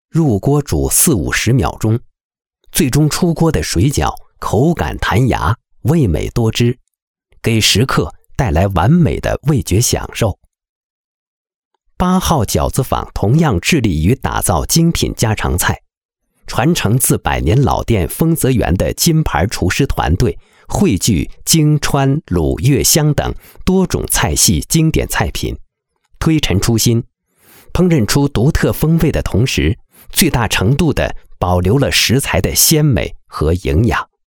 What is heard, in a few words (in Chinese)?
男, 饺子坊